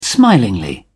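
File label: "smilingly", British English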